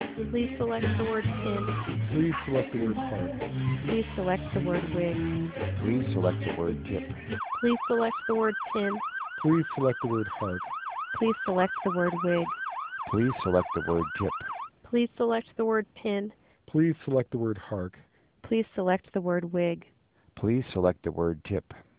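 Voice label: AMR narrowband 12200 all; AMR12,200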